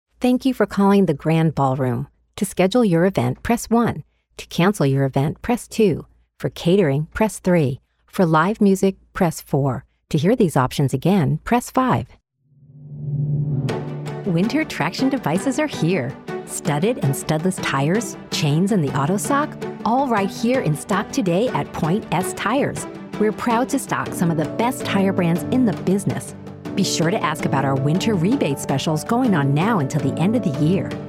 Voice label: IVR; AdvantageIVR